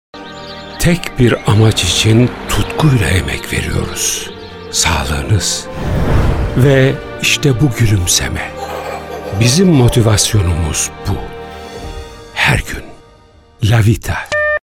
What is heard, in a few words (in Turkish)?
reklam karizmatik; lavita